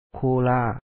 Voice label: "kho2o la1a", Lao; coke